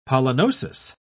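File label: "pollinosis", British English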